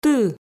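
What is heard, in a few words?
"ты", Komi, female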